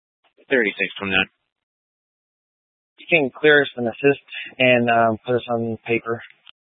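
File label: Police Dispatch, Scanner audio recording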